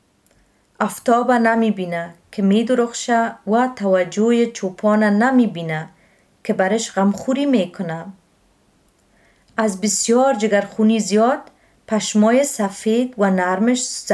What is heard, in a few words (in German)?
dari